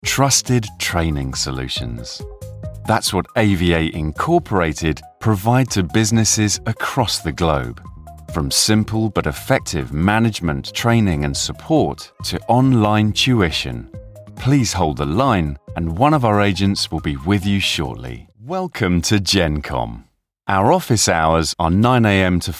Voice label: english british ivr